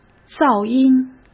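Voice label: "噪音" Mandarin Chinese; hsk; chinese-flashcards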